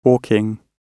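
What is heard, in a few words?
"walking", British English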